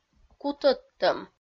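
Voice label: "кутӧдтӧм", Komi, female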